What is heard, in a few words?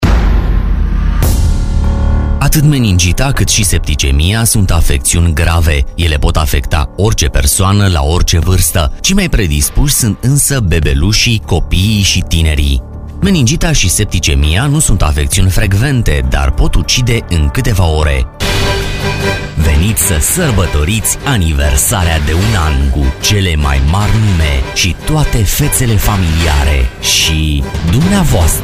romanian